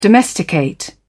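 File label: "domesticate", British English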